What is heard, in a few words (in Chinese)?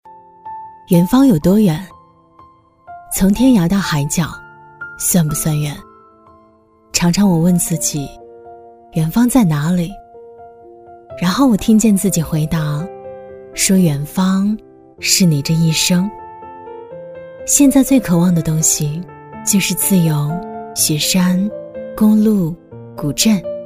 女 旅拍旁白 随性温暖; 低沉走心